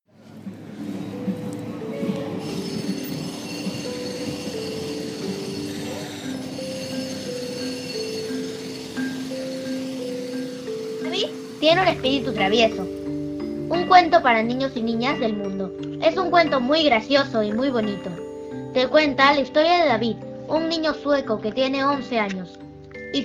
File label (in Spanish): narradora; el libro de los espiritus